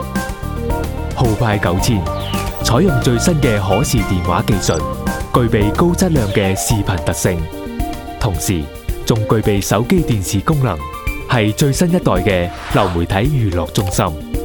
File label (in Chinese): chinese cantonese commercial